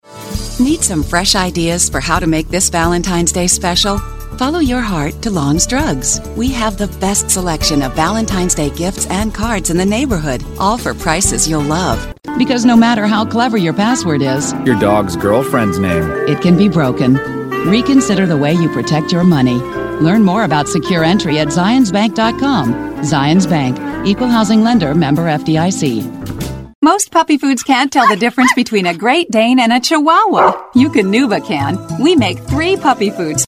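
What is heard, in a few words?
US English Commercial; GoLocalise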